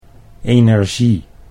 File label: "energie", Dutch